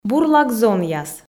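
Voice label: "бурлак зонъяс", Komi, female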